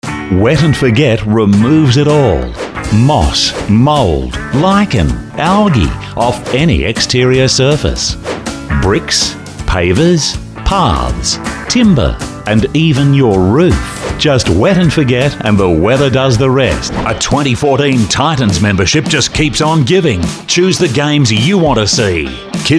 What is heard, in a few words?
male talent retail